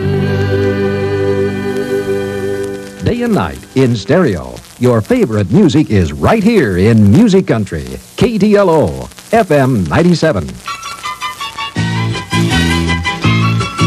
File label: FM Sweeper; KDLO; Nov 1982